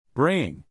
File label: "braying", English, neural; animal sounds in english; Donkeys; Stephen